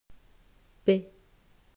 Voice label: pronunciacion fonema p; mausschool

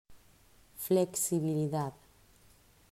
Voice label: "Flexibilidad", pronunciacion; mausschool

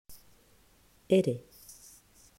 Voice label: pronunciacion fonema r; mausschool

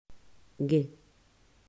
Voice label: pronunciacion fonema g gato; mausschool

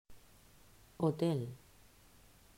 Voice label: "Hotel", pronunciacion; mausschool

